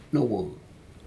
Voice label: "nowoc", Aneityum